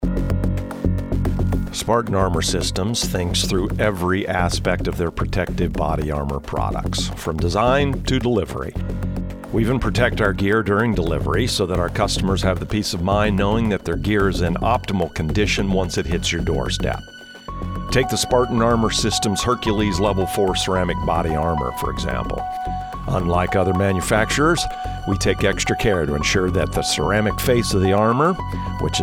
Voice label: Narration; Spartan Armor

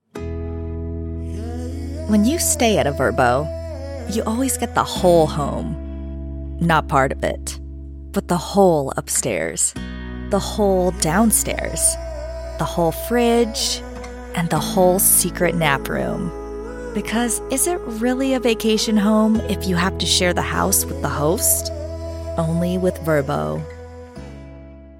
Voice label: warm uplifting sample

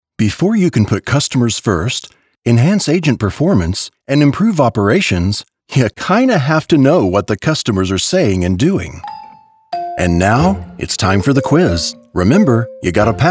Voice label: eLearning

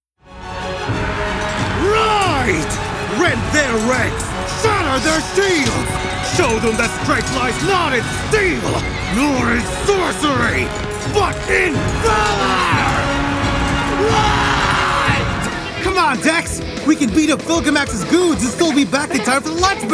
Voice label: Animation

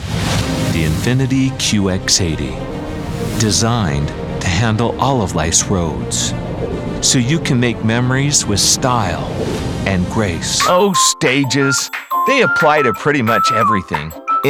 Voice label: Commercial

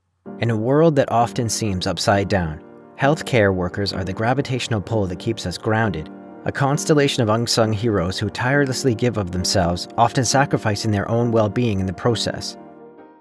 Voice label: Healthcare w Music

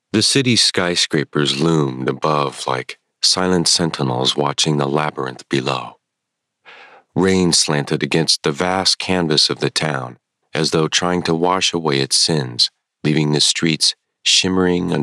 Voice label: Storyteller

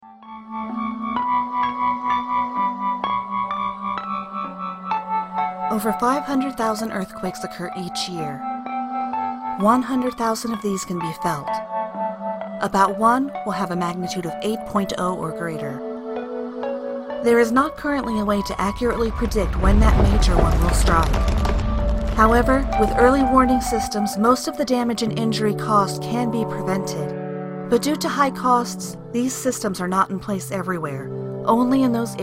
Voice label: Informational Video Sample

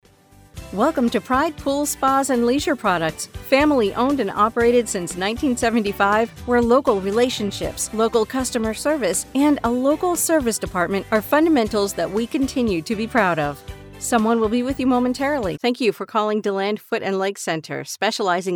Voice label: Telephony

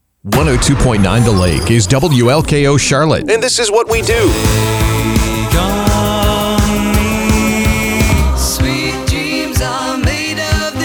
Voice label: Radio Imaging

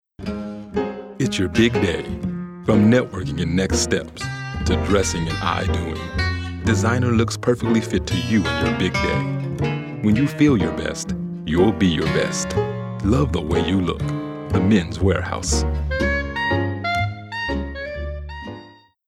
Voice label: Campy Chill

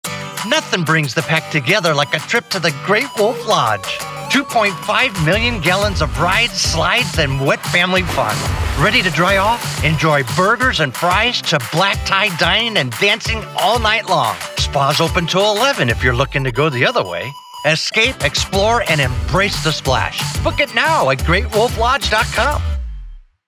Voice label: Spot Commercial; Wolf Lodge

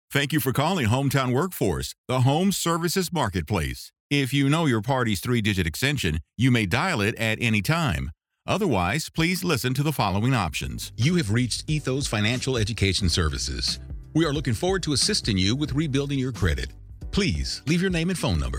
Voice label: IVR

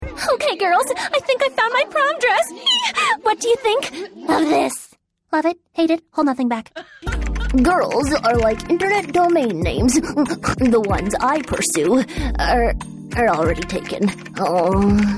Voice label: Animation

